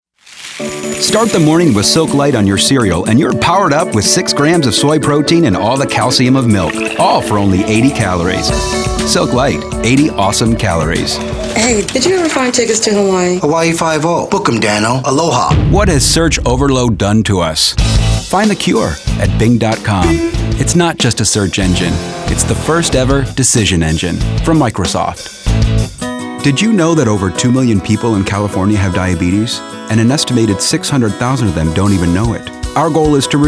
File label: Commercial